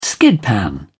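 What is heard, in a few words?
"skidpan", British English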